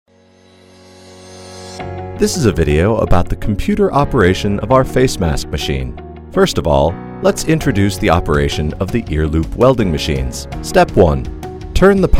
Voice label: 宣传片

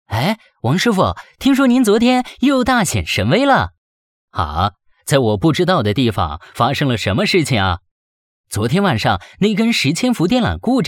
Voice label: 电网课件中低压电缆主绝缘故障测寻对话中年青年

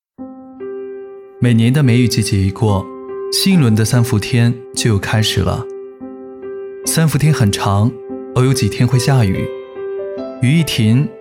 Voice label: 走心旁白; 三伏天

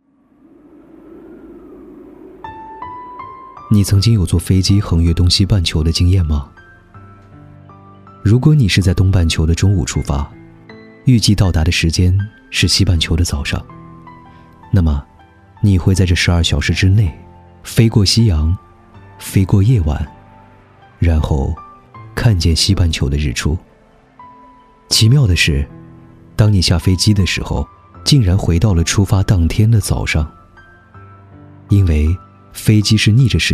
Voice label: 飞行时间讲解治愈

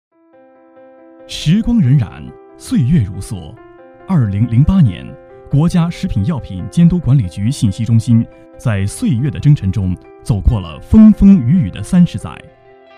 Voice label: 专题片; 国家食药信息年

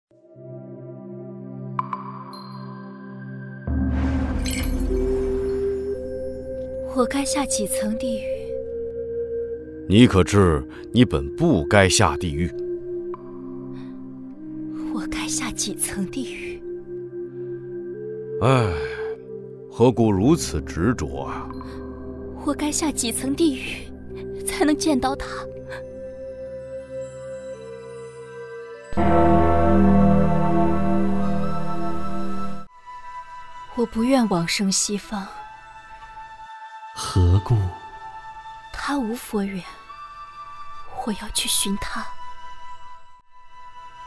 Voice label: 角色剧本杀女

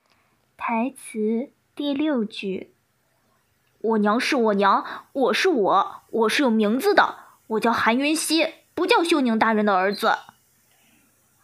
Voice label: 正太音

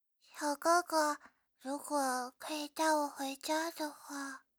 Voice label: 口齿不清小女孩

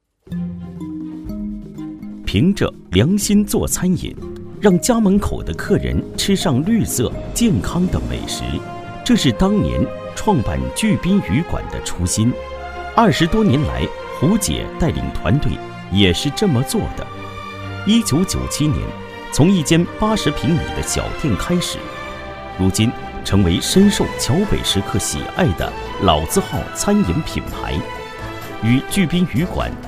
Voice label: 舌尖上的中国风格

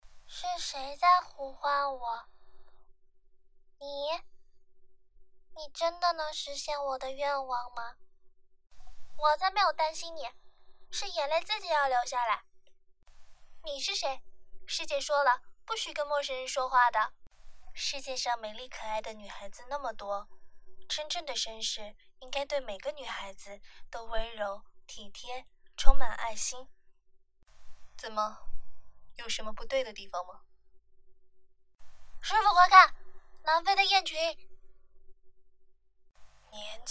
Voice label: 女

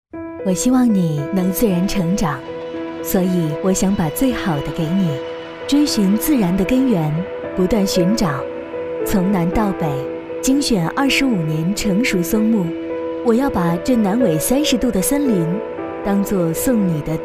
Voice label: 自然讲述旁白

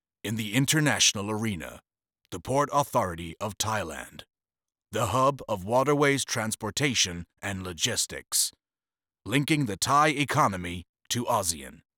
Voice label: 浑厚深度旁白男

